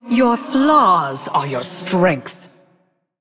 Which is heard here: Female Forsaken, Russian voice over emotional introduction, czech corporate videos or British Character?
Female Forsaken